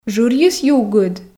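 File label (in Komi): жырйыс югыд, Komi, female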